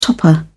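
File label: "topper", British English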